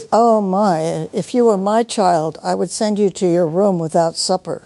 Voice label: upset; ethel; fertig classic games